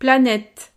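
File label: "planete", French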